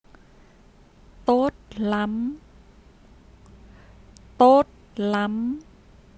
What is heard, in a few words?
Good try, vietnamese; Encouragement